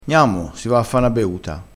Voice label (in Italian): "beuta", dialettale